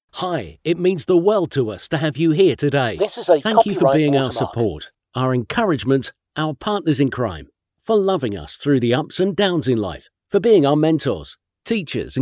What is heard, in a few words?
Male Voice